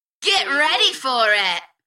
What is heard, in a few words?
UK female, Enthusiastic; Get ready for it; beanstalk audio